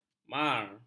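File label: "mar", Malay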